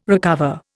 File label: "recover", Indian